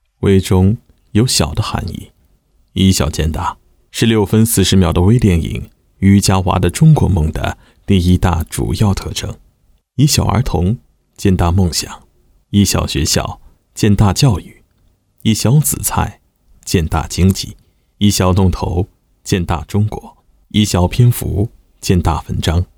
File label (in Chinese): 编者按自述